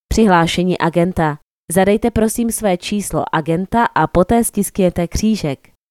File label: czech Agent Login